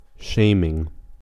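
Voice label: "shaming", US English; pronunciations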